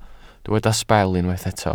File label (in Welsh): "sbel" Welsh